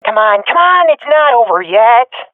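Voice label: round losing, swat female; Counter Strike Model Pack; CS:GO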